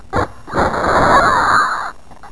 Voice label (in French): MORT ALIEN